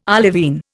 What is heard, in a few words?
"olivine", Indian